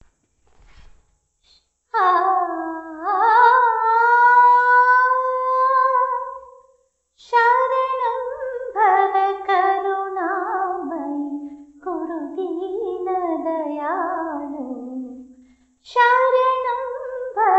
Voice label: Tamil

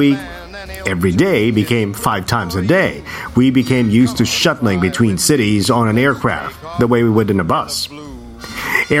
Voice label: Asian English Future of Travel

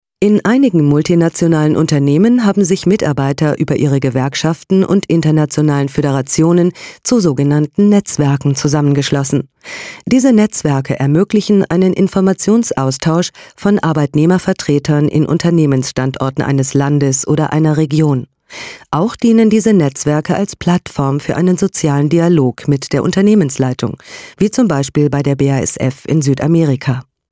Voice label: German Corporate

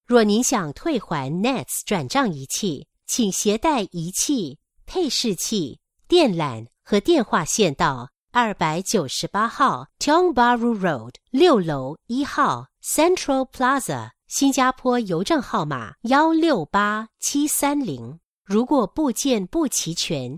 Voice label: Mandarin IVR, Mandarin China Female; NETS

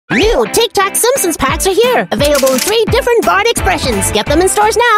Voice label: Asian English Character Little Boy

